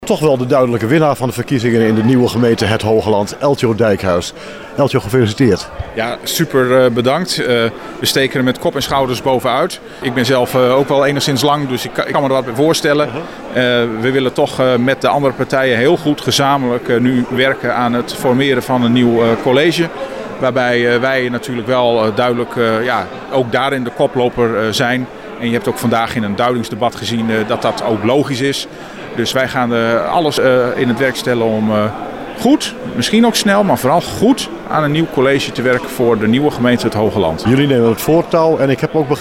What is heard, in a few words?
Interview